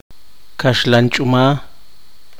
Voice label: "granadilla", Poqomchi

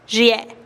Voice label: "xiee'" mi nariz, teotitlan

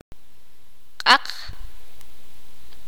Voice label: negro, sakapulteko

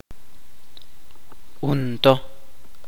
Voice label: "inmaduro", Chuj

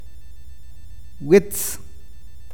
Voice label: "cerro", awakateko

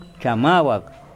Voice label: Nawat palabras grueso; pipil

